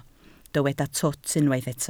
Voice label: "twt", Welsh